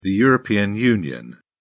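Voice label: "european union", British English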